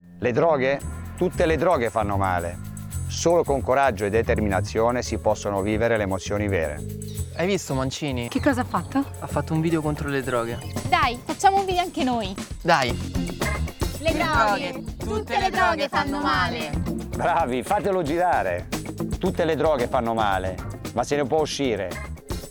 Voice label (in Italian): Spot Radio Antidroga